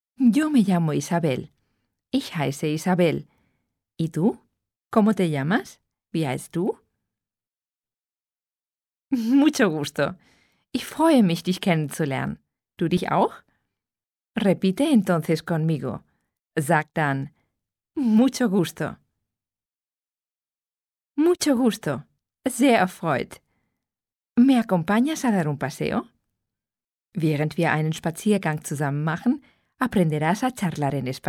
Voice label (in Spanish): es audiolibro; Spanisch mitreden